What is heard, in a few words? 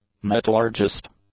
"metallurgist", English